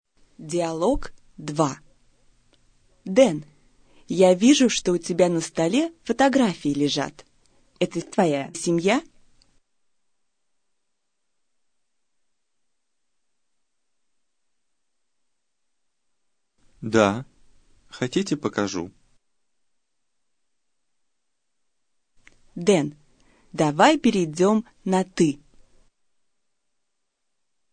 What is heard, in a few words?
dialog, russian